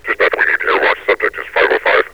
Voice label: air watch subject is 505, metropolice vo; Half Life Alyx

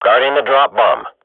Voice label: radio bot guard bomb kill, swat; Counter Strike Model Pack; CS:GO

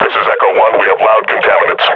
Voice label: under attack, combine grunt; Half Life Model Pack

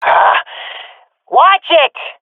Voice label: takingfire friendly, swat fem; vo; agents; Source Model Packs; Counter Strike Model Pack; CS2

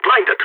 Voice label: blinded, balkan; vo

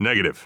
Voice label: negative, heavy; vo; Counter Strike Model Pack; CS:GO; phoenix coop

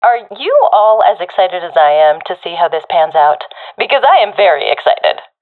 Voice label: round start, professional fem; vo; agents; Source Model Packs; Counter Strike Model Pack; CS2

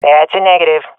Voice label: negative, swat fem; vo; agents; Source Model Packs; Counter Strike Model Pack; CS2

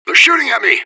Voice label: radio bot under fire; fbihrt

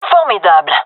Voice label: cheer, gendermerie fem; vo

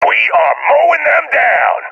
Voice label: cheer; vo; Counter Strike Model Pack; CS:GO

